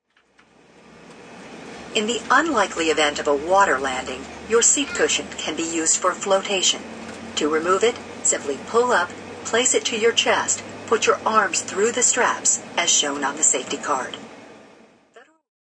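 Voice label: Plane Safety Instructions, Stewardess announces safety instructions before airline takeoff; Airplanes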